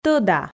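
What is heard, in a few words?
"тӧда", Komi, female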